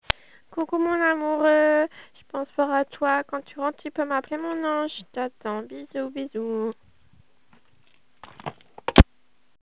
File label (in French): message telephoniques